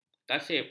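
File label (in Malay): "kasip", Malay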